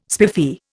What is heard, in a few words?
"spiffy", Indian